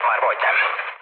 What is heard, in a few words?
near waypoint, mood low, speech hun; War Thunder